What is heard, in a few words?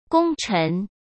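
"功臣", Mandarin Chinese; hsk; chinese-flashcards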